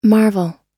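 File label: "marvel", English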